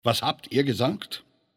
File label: Neutral Hear It; Civ5; Bismark